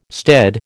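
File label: "stead", American English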